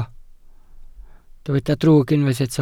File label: "drwg", Welsh